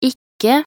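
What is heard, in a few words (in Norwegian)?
"ikke", Norwegian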